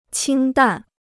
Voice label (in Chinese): "清淡", Chinese